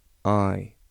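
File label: English alphabet i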